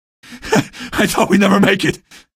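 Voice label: citizen evac thanks, male; vo; npc; source engine; episodic; episode 1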